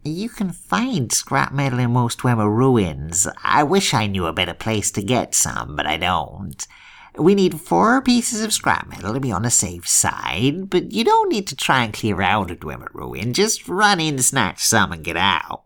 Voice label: bosmer, wood elf voice auditions